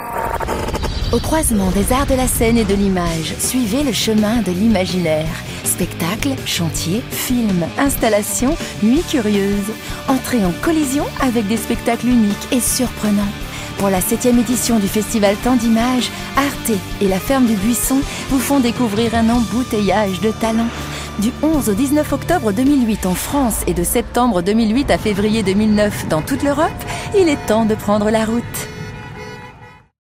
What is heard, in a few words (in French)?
enthousiaste et posee; Temps d image; Arte